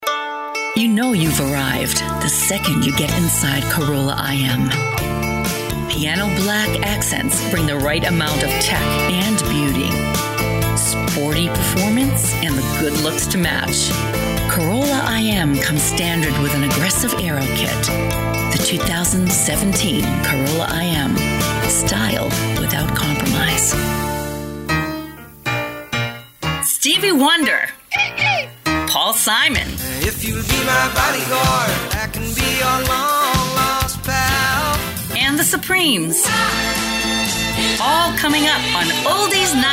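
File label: voice over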